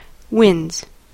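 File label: "winds", American English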